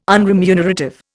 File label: "unremunerative", Indian